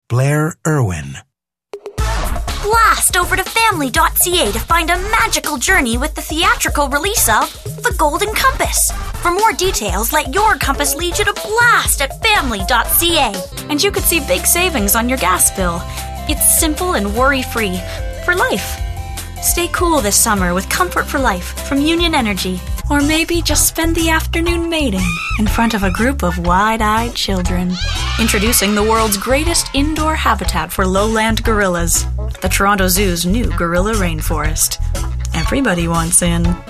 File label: commercial female